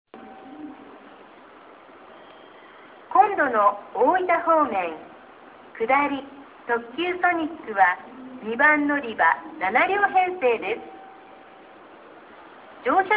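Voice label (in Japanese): 案内放送, 中津駅 旧放送; ソニック; スピーカーはTOAのコラムスピーカー; BOSE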